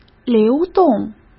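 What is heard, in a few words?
"流动", Mandarin Chinese; hsk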